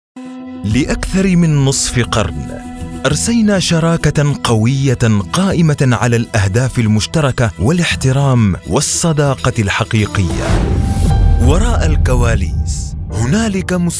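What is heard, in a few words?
Arabic Narration; GoLocalise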